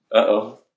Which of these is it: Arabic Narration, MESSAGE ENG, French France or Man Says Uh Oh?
Man Says Uh Oh